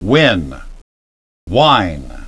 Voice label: "win", "wine"